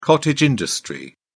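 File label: "cottage industry", British English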